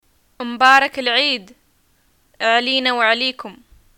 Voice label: greeting eid and response, arabic